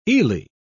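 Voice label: "eely", British English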